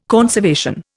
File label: "conservation", Indian